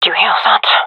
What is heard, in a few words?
heard sound, gendarmerie fem; vo; agents